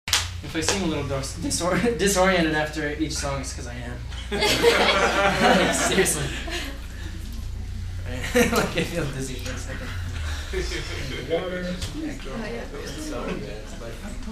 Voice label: talking talking talking, live